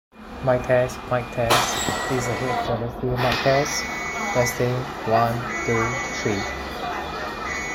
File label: Noisy